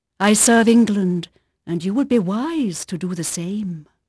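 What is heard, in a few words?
English female, voice; Pirates Of The Caribbean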